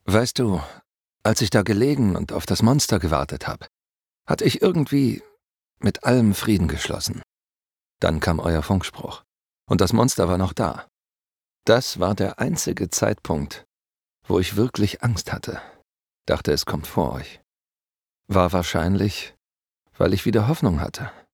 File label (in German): DE Soldat nachdenklich